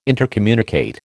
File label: "intercommunicate", American